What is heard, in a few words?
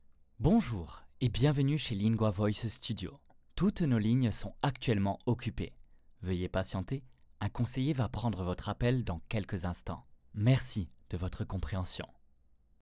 French IVR Corporate